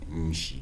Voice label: "pestle", Kibembe